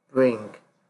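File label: "bring", Nigerian Pidgin, male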